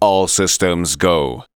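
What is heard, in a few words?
"ALL SYSTEMS GO", SPOKEN; Techno Trance Essentials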